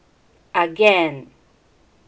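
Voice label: "again", Filipino, female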